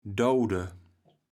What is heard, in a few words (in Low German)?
"dauwde", kaevels platt